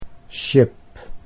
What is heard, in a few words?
"ship", Dutch English